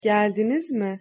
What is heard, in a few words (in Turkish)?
"geldiniz mi", Turkish